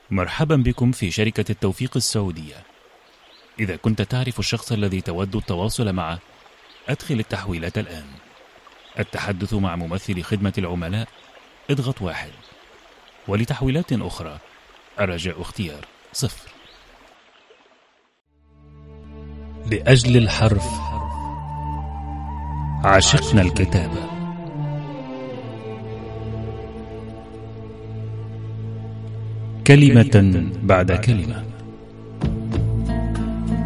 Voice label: Arapca Erkek Klasik Arapca, seslendirme

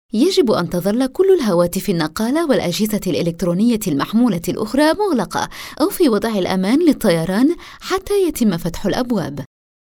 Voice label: Arapca Kadin Klasik Arapca Fasli; kadin sesler; seslendirme

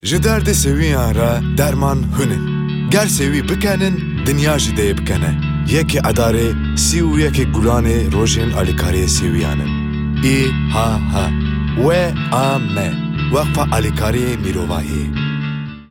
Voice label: Kurtce Erkek Kurmanci, seslendirme

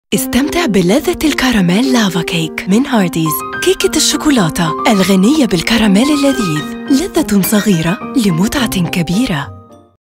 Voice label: Arapca Kadin Klasik Arapca Misirli; seslendirme; kadin sesler

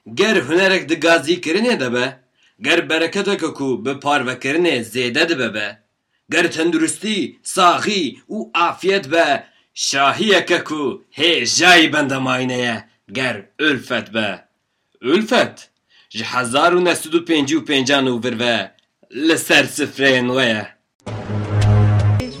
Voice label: Kurtce Erkek Kurmanci, seslendirme; erkek sesler

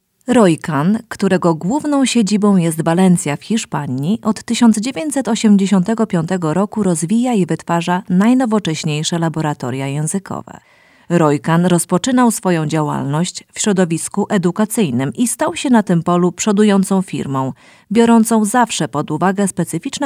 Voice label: Lehce Kadin, seslendirme; kadin sesler

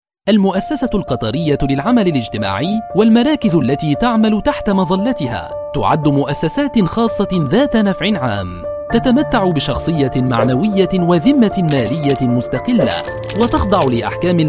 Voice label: Arapca Erkek Klasik Arapca, seslendirme; erkek sesler

